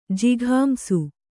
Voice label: "ಜಿಘಾಂಸು", Kannada